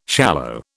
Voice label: "shallow", British